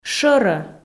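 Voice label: "шӧрӧ", Komi, female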